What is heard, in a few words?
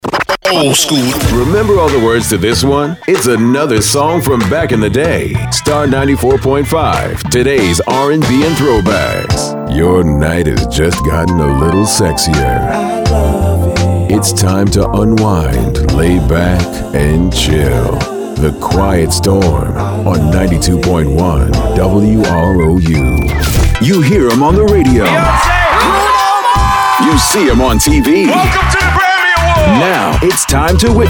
Urban AC Imaging